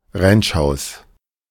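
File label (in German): "Ranchhaus", German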